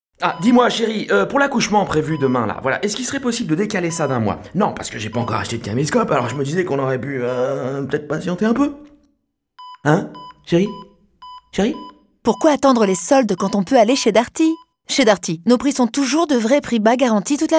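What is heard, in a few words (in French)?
signature promo, voix off féminine; Darty Soldes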